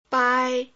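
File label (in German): Phoneme, chinesisch; bai 1; Silben; Chinesischen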